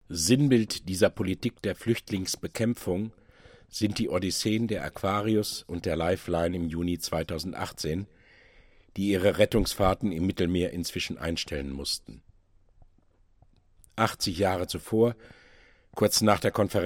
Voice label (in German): ansage